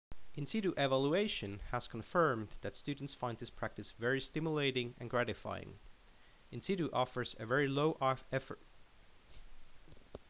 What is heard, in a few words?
Narration